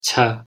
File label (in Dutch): "茶", Gan; thee-in-andere-talen